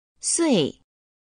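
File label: "sui", Chinese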